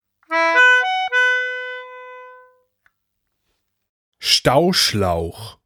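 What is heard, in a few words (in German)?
"Stauschlauch", German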